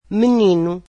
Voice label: "boy", Portuguese

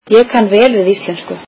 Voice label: icelandic I like Icelandic

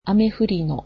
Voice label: "rainy", Japanese

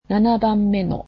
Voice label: "seventh", Japanese

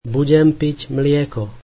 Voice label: I will drink milk, slovak

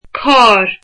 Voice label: "car", Irish

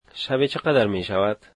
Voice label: What is the charge per night, Dari

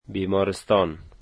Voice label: hospital, Dari

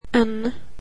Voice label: swedish alphabet n

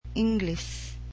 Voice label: "English", cebuano

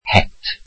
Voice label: welsh hat

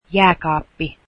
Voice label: refrigerator, finnish

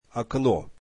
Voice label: russian window

